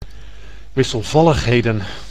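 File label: "wisselvalligheden", Dutch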